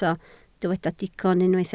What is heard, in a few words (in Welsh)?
"digon" Welsh